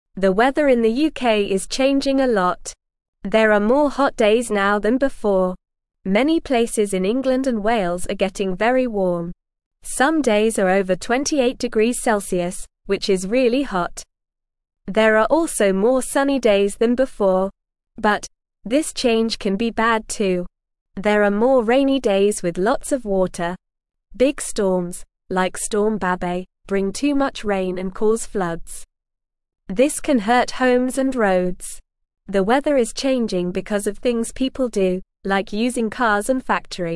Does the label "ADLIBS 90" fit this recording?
No